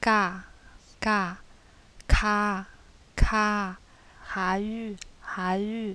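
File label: consonants k, yancheng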